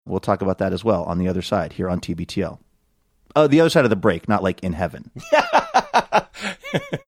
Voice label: saying well talk about that as well on other side laughing